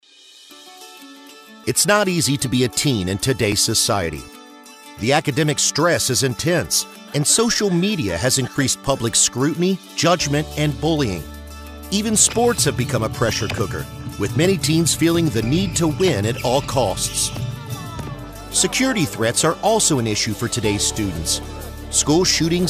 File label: Conversational male voice, Movie Trailers, Dramatic, Cinematic Voice; Dramatic, Cinematic Voice Ideal For Movie Trailers, Tv Promos, And Video; Gaggle SpeakUp for Safety